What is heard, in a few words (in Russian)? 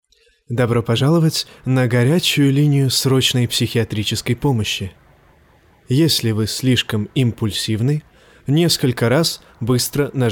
zapis na avtootvetchik